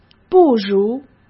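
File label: "不如", Mandarin Chinese; hsk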